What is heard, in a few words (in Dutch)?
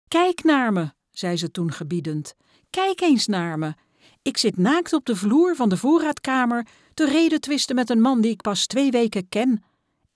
luisterboek